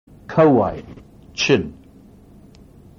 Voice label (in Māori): "kauae", maori words